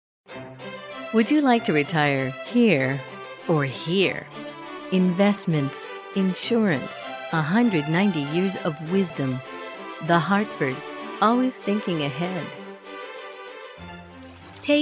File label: Commercial Female